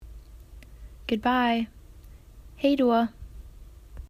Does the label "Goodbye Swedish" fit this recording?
Yes